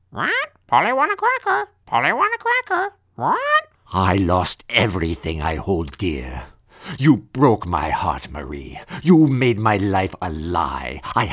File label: Video Game Characters